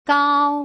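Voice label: "gao", Mandarin Chinese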